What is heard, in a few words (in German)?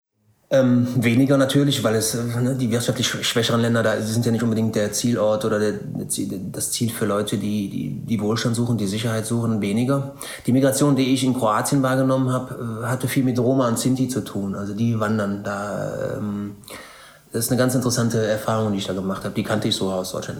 kroatisch